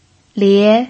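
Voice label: "lie", Mandarin Chinese pinyin, tone 2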